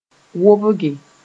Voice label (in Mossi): "wobge", moore